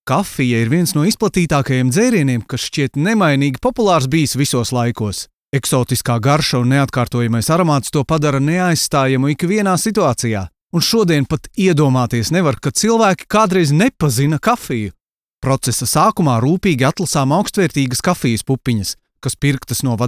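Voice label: Latvian, male, narration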